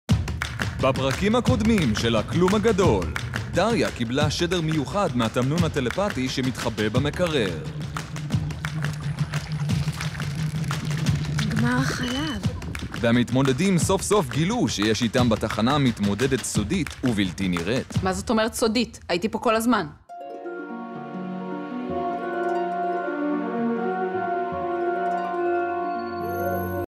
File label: hebrew tv shows